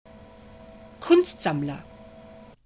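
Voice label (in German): "Kunstsammler", German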